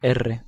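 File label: "r" Spanish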